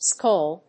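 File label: "skoal", English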